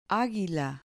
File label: "aguila", Spanish